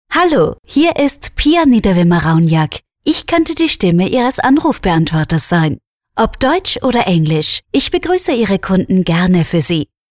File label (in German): Sprecher Telefonansagen